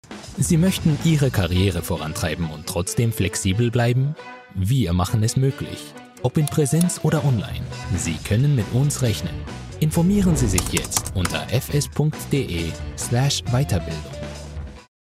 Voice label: german commercial

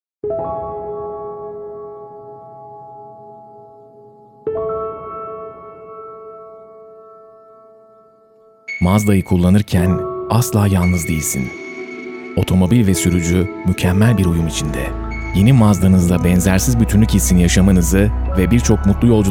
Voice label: turkish automotive

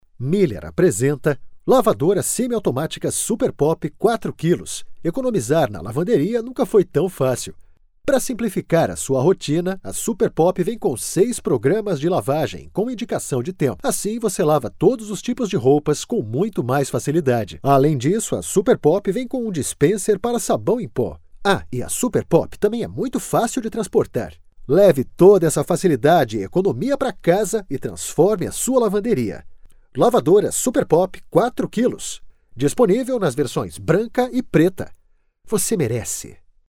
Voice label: portuguese brazilian promos; brazilian portuguese voice actors

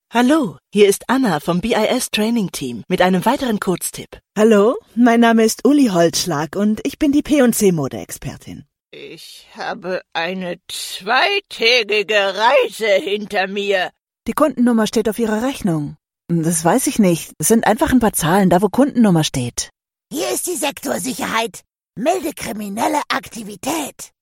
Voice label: german video games

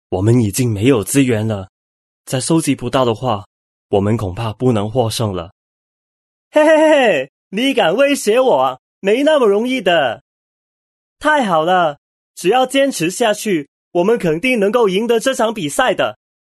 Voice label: chinese mandarin video games

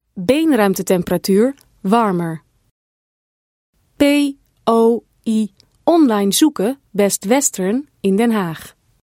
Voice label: dutch automotive

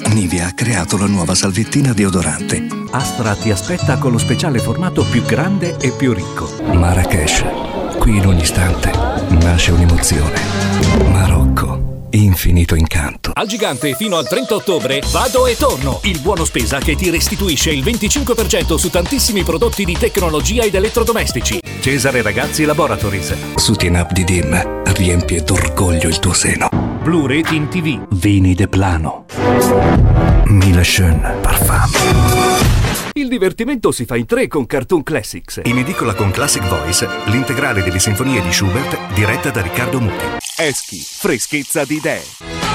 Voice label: italian commercial; formal; formal voice actors

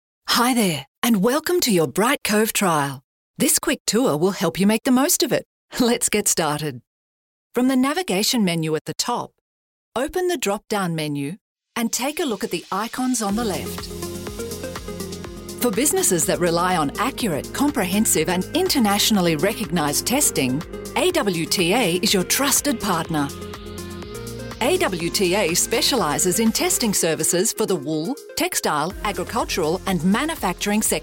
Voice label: english australian corporate videos

